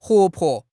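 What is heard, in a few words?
"huo2 po5", Chinese; HSK4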